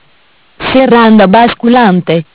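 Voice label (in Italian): "Serranda basculante", Italian; Bentel; BLE320; MESSAGGI VOCALI; Audio BGS220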